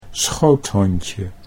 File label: "schoothondje", Dutch